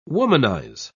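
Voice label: "womanise", English, British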